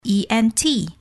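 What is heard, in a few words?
ent spell, English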